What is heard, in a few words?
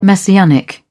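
"messianic", English, British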